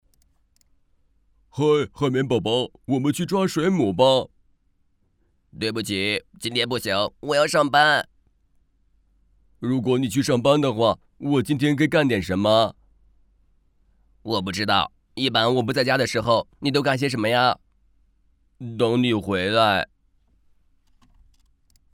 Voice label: 男 角色 变声 动画; 派大星; 海绵宝宝

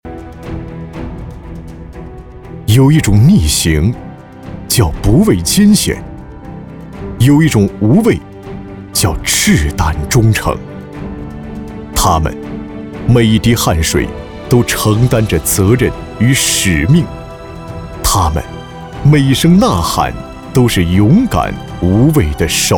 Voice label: 男 微电影 独白; 他们

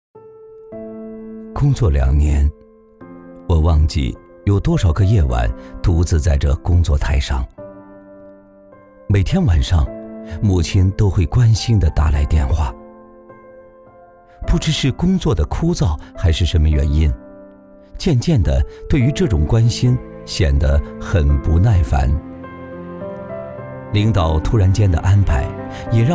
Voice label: 男 走心旁白 温情; 母亲

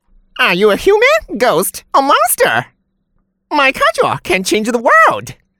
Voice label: 英男 角色; 孙悟空

